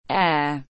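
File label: "Heir", English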